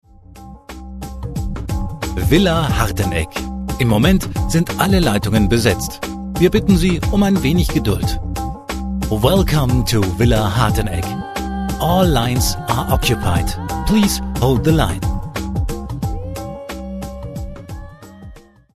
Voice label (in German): Ansage bei Besetzt; Villa Harteneck